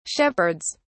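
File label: "shepherds", British English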